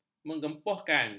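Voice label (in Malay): "mengempohkan", Malay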